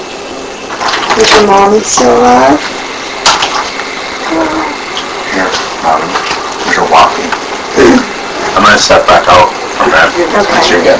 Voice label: Living Room Unexplained little girl voice (No) AMPLIFIED